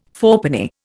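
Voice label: "fourpenny", Indian English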